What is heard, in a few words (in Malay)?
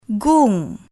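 "gung", Malay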